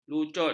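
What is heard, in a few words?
"lucut", Malay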